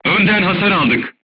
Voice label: voice message shot front, turkish